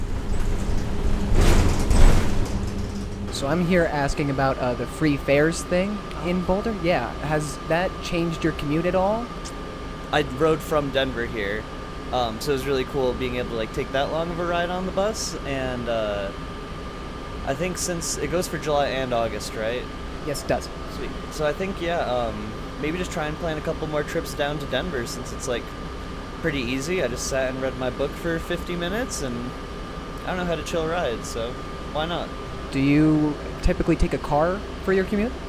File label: Vox Pop; ZeroFare